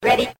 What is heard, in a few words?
"ready", robot; questions